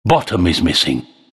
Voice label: announcer miss bottom; Trine